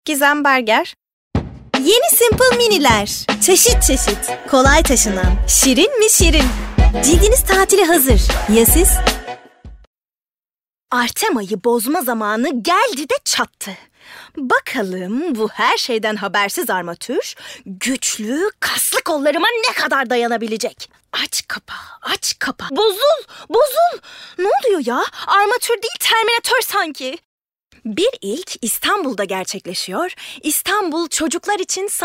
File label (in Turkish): turkce kadin